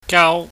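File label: "gaau", Cantonese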